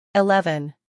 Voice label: "eleven", English

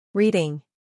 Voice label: "reading", English

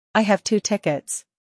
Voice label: "I have two tickets", English

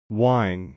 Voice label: "wine", English

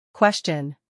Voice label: "question", English

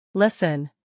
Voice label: "listen", English

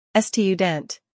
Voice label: "student", English

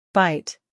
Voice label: "bite", English

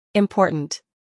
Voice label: "important", English

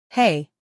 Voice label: "Hey", English

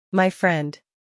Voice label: "my friend", English

